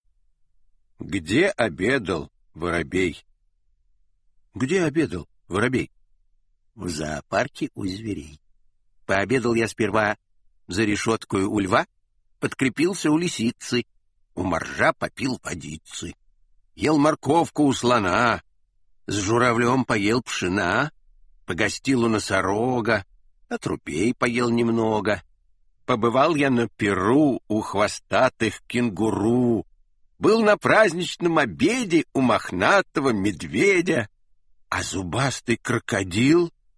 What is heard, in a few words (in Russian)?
Audio skazka; Gde obedal vorobej; Samuil Marshak